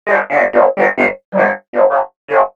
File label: prethink murmur